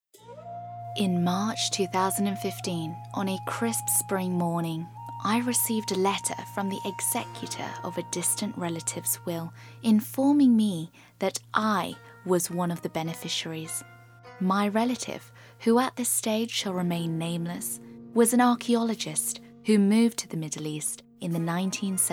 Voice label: audiobook